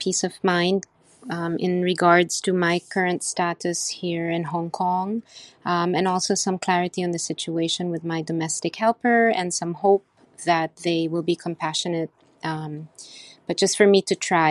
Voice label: Thank you testimonial message